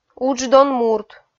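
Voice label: "удждон мурт", Komi, female; kpv audio dict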